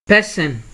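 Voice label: "person", Nigerian Pidgin, male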